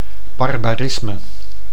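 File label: "barbarisme", Dutch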